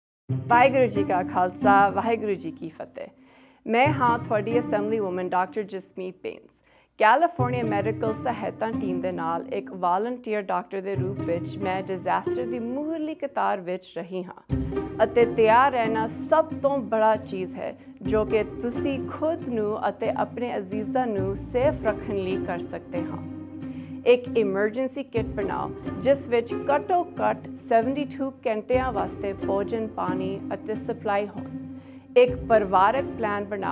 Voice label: Punjabi Version, Radio